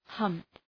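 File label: "hump", English; {hʌmp}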